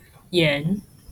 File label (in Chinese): "眼" Chinese